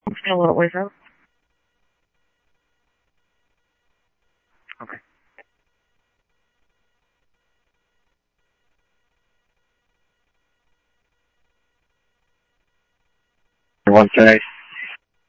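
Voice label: Mesa Simulcast Police Department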